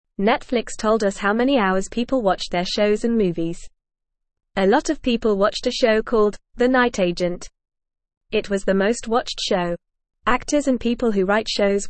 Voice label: Beginner FAST Reading, English; English Newsroom; Netflix Shows and Movies What People Like